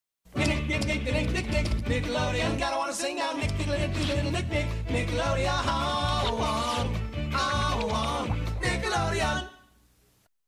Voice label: Commercial; Nickelodeon Time Capsule; clarissa